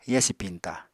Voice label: "yasi piñta", Apatani